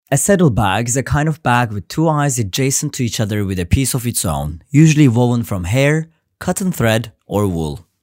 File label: english